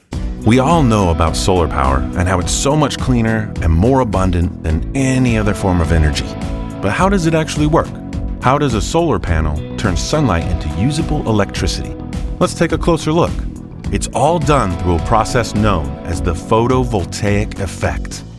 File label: Narration; Solar Panel